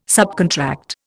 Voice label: "subcontract", Indian

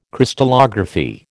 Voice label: "Crystallography", American

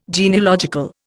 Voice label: "genealogical", Indian

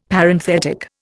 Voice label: "Parenthetic", Indian; Dictionary

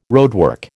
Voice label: "roadwork", American

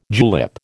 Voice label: "julep", American

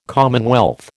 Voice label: "commonwealth", American

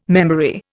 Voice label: "memory", Indian

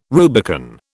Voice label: "Rubicon", British

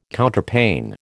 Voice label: "Counterpane", American

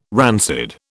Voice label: "rancid", British

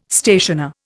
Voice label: "stationer", Indian

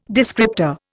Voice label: "descriptor", Indian

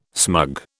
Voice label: "smug", British

